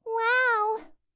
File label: Cute Loli "Wow"